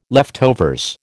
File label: "leftovers", American